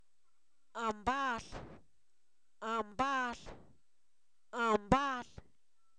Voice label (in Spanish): compadre, Zapoteco, san miguel albarradas; humano; INALI